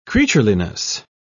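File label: "creatureliness", British English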